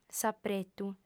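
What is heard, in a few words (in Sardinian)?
"s'apretu", female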